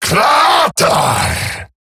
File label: Ability Short Cast, Grineer Male, en; Eximus; Battle